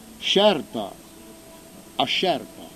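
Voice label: "sciarpa", Corsican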